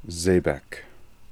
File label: "Zehbek", pronounciations; Fourth Way; Beelzebub